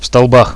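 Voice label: pillars, radio, Russian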